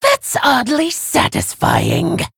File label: Orc